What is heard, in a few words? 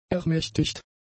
"ermächtigt", German; adjectives